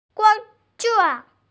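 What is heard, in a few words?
"gong zuo", Mandarin Chinese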